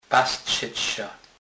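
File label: "pastsec", Polish, Kurpie dialect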